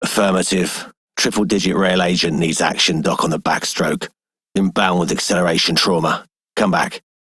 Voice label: Male Dispatcher, en; Dialog; Warframe; Solaris Venus

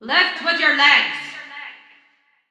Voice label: Soldier Female Helmet, Barks Battle, en; Sinecure

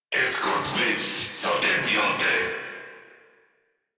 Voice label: Reload Crewmen, en; Warframe; Corpus Crewmen; Crewman A; Battle Barks

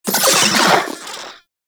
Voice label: Vocal Death; Warframe; Corpus; Arisoctrats; Mini Moa

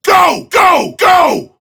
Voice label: Attack Prime Grineer Male; Tau; Battle Barks

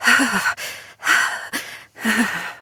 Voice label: Operator Voice

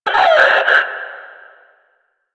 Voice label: Death, Battle Barks; Warframe; Lasrian; Lasrian Enemy C

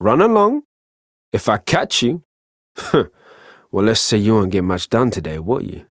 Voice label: Ambient Barks, en; Warframe; Mall Romance; Quincy

